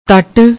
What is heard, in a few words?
"tatt", Punjabi; ਤਟ